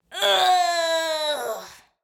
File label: Death Vo; portal quest android; Paladin